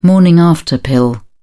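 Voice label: "morning-after pill", British English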